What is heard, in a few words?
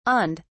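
"awned", British English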